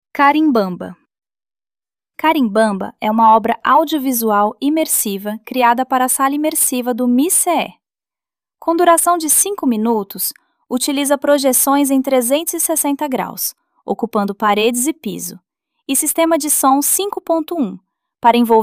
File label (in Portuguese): Narracao do texto curatorial; Carimbamba